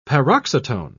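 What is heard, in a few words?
"paroxytone", British English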